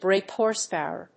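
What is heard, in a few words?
"brake horsepower", English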